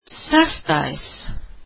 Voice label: "sixth", latvian